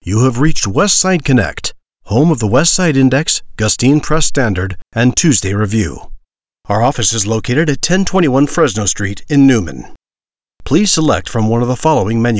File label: Phone Message; West Side